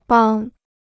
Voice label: "帮", Chinese

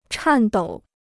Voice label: "颤抖", Chinese

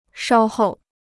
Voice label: "稍后", Chinese

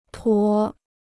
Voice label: "驮", Chinese

